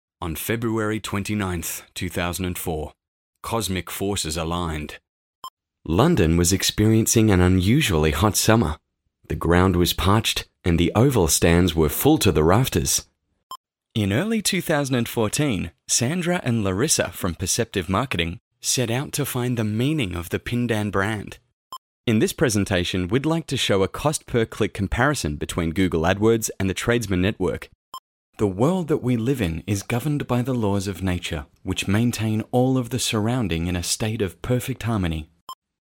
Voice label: english australian male; dictor; dictor-sounds